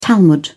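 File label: "talmud", British English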